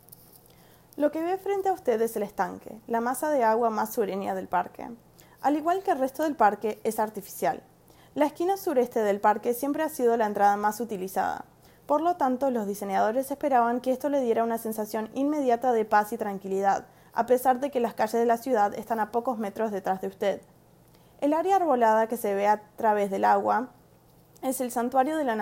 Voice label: Central Park the Pond Spanish Version